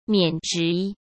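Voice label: "免职", Mandarin Chinese; hsk; chinese flashcards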